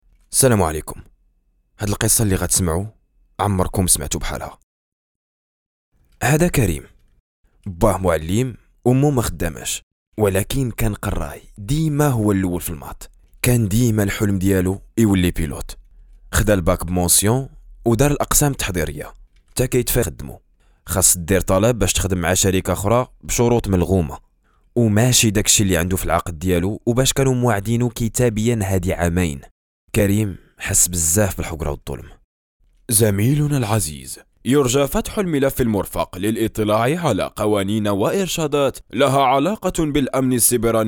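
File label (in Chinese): 温柔缓慢, 越南语 男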